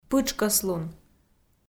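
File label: "пытшкӧслун", Komi, female; kpv audio dict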